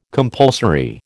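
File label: "compulsory", American English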